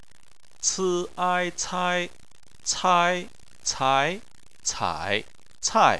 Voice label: "cai", Chinese

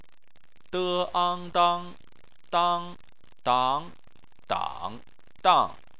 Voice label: "dang", chinese

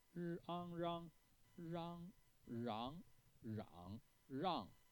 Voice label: "rang", chinese

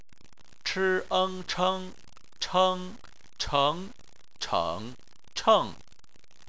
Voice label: "cheng", Chinese

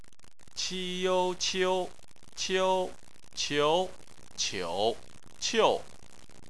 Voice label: "qiu", chinese

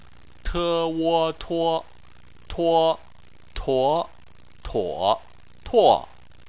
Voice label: "tuo", chinese

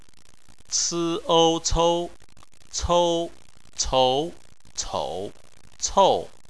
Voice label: "cou", Chinese

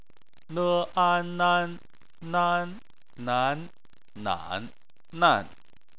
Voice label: "nan", chinese

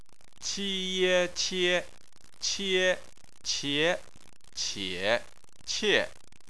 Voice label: "qie", chinese

